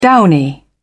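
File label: "downy", British English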